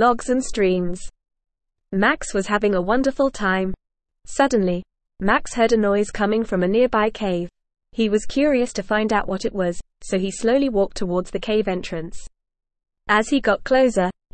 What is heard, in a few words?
ESL Short Stories for Kids NORMAL reading The Adventure of the Black Labrador